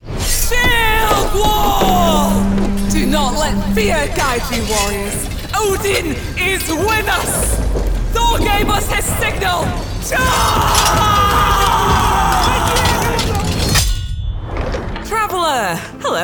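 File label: Video Game, female voice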